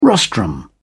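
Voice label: "rostrum", British English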